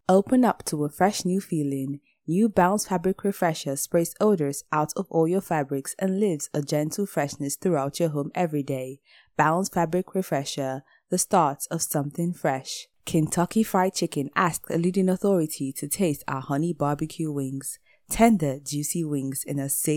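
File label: English West African Narration, accent of africa; voice-over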